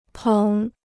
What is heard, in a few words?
"捧", Chinese